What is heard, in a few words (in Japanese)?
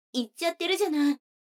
女の子 台詞